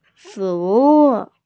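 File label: "fu2wu4", Mandarin Chinese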